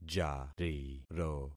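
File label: "jariro", concatenated syllables; Affixing experiment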